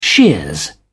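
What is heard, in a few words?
"shears", British English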